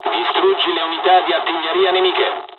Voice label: ground attack, dialogs italian; War Thunder